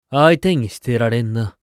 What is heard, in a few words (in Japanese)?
逃げる1, クール系ボイス; 戦闘ボイス